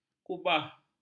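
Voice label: "kubah", Malay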